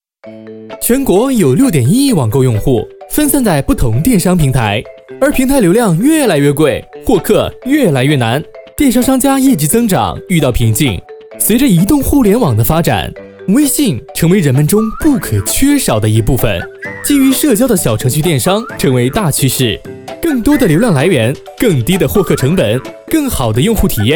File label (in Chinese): 诙谐轻快电商; 飞碟诙谐轻快电商进化